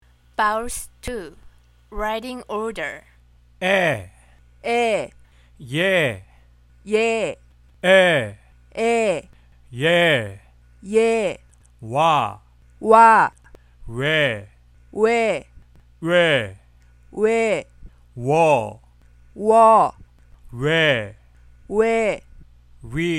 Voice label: Vowels, hangul